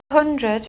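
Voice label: "hundred", English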